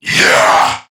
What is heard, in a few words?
Threatened Crewmen, Battle; Dialog; Barks; Warframe; CorpusCrewmen; CrewmanA